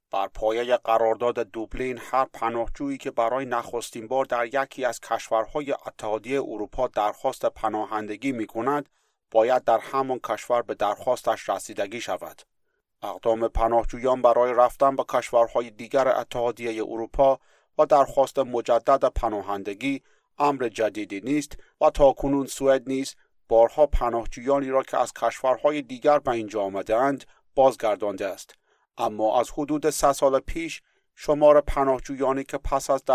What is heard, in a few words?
Dari, General